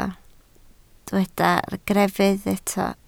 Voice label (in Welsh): "greddf" Welsh